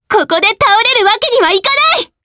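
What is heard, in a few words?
Vox Skill, Japanese; Frey; kingsraid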